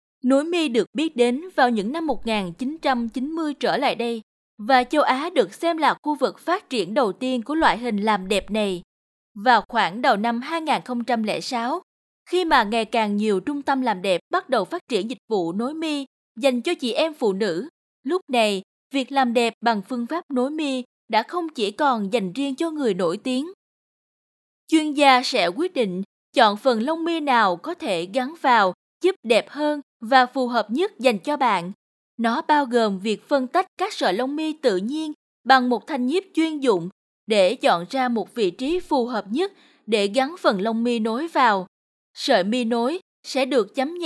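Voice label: Vietnamese South, female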